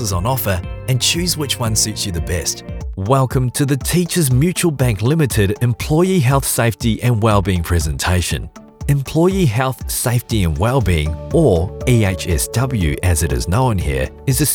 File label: E-learning